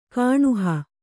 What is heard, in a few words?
"ಕಾಣುಹ", Kannada; kāṇuha; noun; vision.